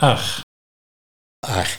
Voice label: "Aach", Nieuw lekkerlands dialect

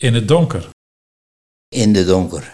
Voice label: "In de donker", Nieuw lekkerlands dialect; in het donker

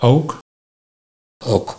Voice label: "Ook - Ok", Nieuw lekkerlands dialect

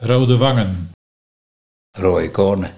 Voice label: Rôje kôhne, Nieuw lekkerlands dialect; rôde kôhne

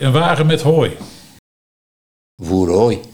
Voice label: Een voer hooi, dialect Nieuw lekkerlands; een wagen met hooi

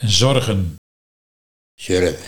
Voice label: "Zurge", Nieuw lekkerlands dialect; zorgen

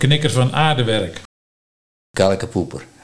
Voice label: "Knikker van aardewerk of klei - Kallekepoeper", Nieuw lekkerlands dialect

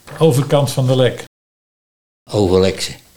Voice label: "Overleks", dialect Nieuw lekkerlands

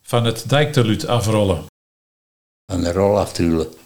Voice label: "Van het dijktalud afrollen - Van de rol aftrule", Nieuw lekkerlands dialect

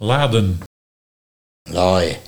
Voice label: Laje, Nieuw lekkerlands dialect

